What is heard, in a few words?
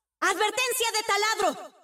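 Latin American Spanish; 探奇（守望先锋2）; 终极技能; 拉美地区; 西班牙语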